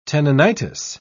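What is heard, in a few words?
"tenonitis", British English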